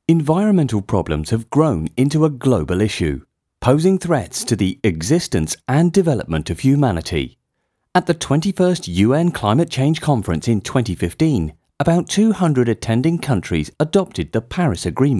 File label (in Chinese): 宣传片; 东城; 宝碳宣传片翻译